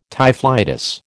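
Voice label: "typhlitis", American English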